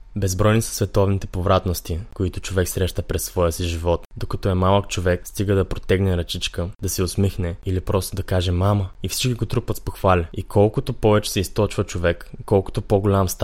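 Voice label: Bulgarian, male; voice over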